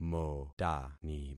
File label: "motani", concat syllables; Affixing experiment